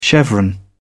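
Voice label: "chevron", British English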